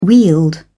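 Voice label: "wield", British English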